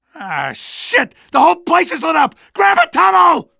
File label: tunnel panic; hl2 ep2; outland 02; griggs